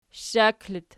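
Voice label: shshak locative, Archi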